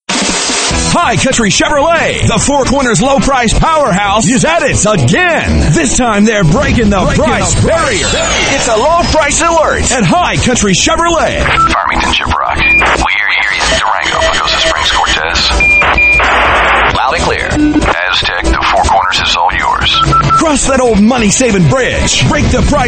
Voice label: COMMERCIALS, Voiceover; Hi Country Chevrolet